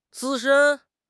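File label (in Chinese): "资深", Chinese; HSK6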